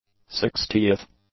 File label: "sixtieth", English; adjectives